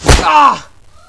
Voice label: pain